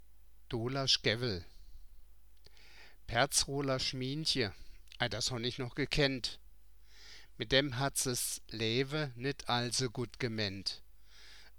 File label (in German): Mundartgedichte und -geschichten auf Ensemma Pladd; ENSHEIM; Pärrdsroolasch Mienche, ei, dass honnich noch gekännd. Medd dämm hadd's 's Lääwe nidd alls; 4.5 Mundartgedichte und -geschichten auf Ensemma Pladd